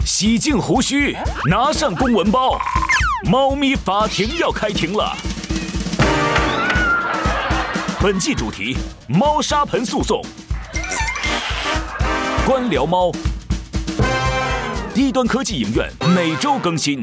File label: Mandarin Ad, 电台广告; Bureaucats Litter Trays; 双点校园; 低端科技